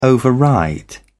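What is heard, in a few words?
"override", English, British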